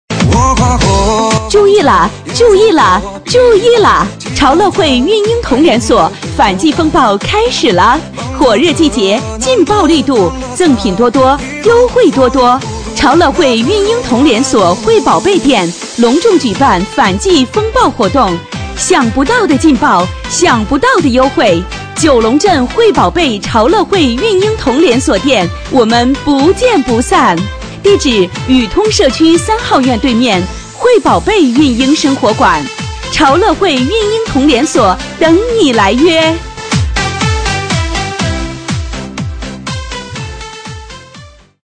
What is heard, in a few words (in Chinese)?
女 促销; 潮乐汇